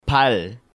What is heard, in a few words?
"Bal", Korean